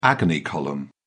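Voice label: "agony column", British English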